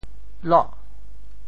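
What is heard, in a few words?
"loh8", Teochew; 潮州; 落句dam7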